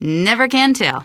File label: answer, female; Garry's Mod; hl2